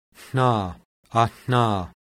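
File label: voiceless alveolar nasal; IPA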